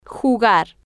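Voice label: "jugar", Spanish, female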